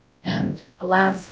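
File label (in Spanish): Voice Acting, es, female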